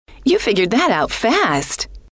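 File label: you Figured That Out Fast, female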